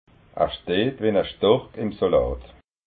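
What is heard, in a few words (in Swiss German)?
"stork", Alsatian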